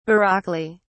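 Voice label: "baroquely", British English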